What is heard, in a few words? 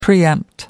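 "preempt", English, British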